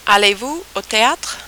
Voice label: rhythm, French

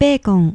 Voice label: "beekon", Japanese

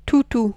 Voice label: "toutou", French

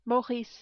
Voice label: "Maurice", French

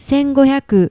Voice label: "sengohyaku", Japanese

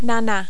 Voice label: "nana", French

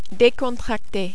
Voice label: "decontracte", French

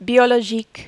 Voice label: "biologique", French

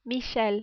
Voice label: "Michel", French

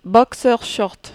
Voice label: "boxer short", French

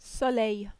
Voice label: "soleil", French

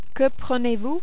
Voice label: "que prenez vous", French

